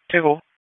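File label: Police Northeast District Dispatch, p25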